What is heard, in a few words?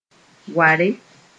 "ware", Mooré; game sp